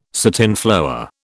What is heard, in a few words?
"satinflower", British